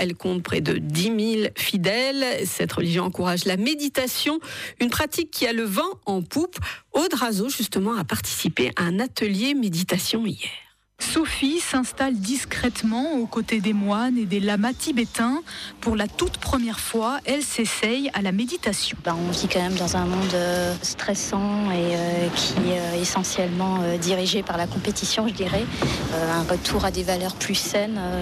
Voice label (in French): reportage Bouddha; Journal 7h